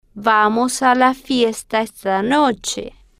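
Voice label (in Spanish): vamos a la fiesta esta noche, spanish, female, slow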